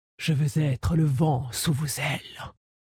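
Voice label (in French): French Female Flirt; VentAiles; Dracthyr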